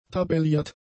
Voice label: "tabelliert", German; adjectives